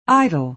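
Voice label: "idle", American English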